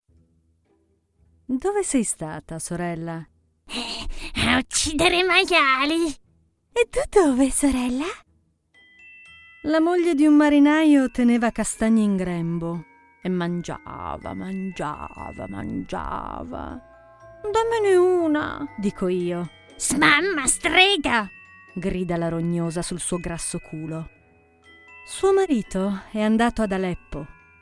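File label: Italian, Characters; voice demos; GoLocalise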